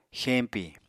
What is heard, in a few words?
"xeñpi", Apatani